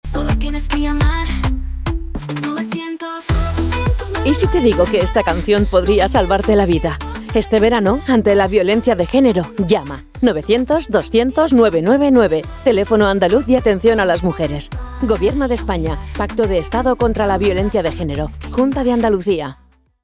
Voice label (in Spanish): CUÑA CAMPAÑA